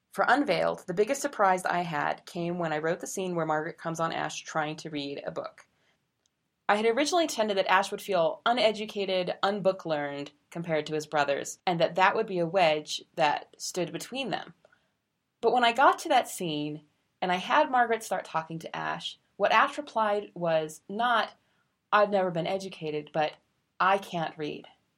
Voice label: reading; unveiled